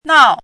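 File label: "nao", pinyin, fourth tone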